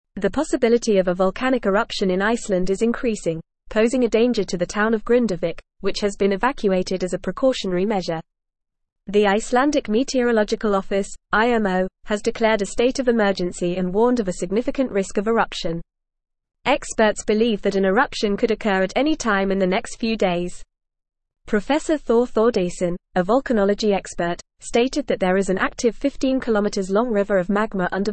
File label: English Newsroom Advanced FAST Reading; Iceland Declares State of Emergency as Volcano Risk Rises